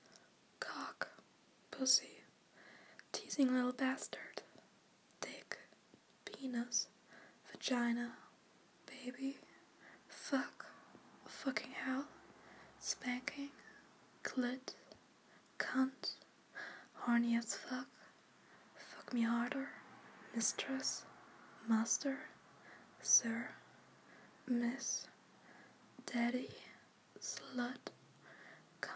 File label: Accent challenge German accent, F4A